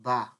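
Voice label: "bar", Nigerian Pidgin, male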